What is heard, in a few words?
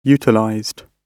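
"utilized", British English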